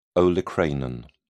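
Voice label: "olecranon", British English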